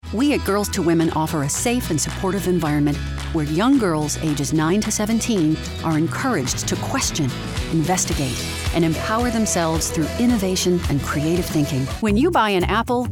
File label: Commercial